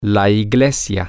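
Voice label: Spanish, the church, male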